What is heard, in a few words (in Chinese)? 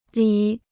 "礼", Chinese